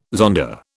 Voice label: "zonda", British